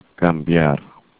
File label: "cambiar", Spanish; infinitive